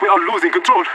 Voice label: scared emote; Counter Strike Model Pack; CS:GO; leet